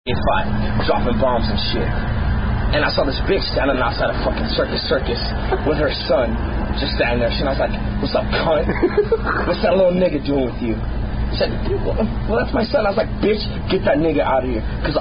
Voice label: Black Toast Recitation; Unforgivable Toast